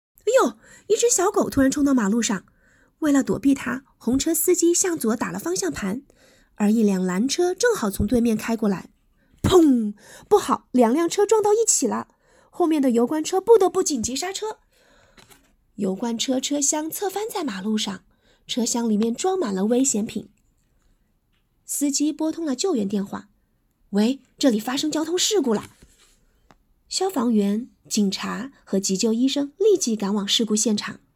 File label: Chinese, Female